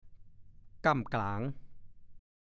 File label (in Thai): "ก้ำกล๋าง", ภาษาโคราช